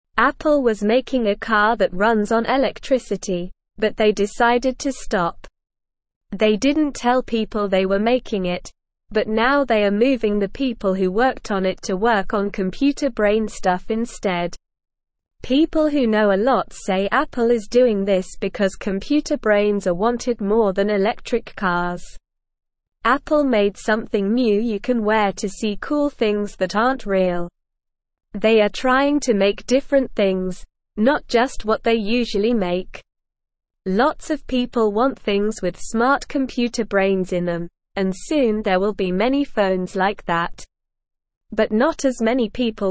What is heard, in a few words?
English Beginner SLOW Reading; English Newsroom; Apple Stops Making Electric Cars Focuses on Computer Brains